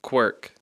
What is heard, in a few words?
"quirk", English